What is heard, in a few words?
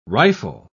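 "rifle", English word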